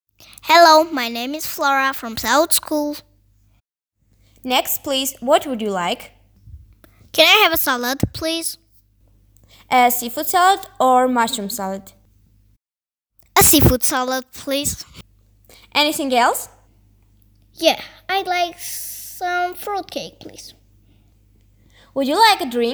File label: Dialog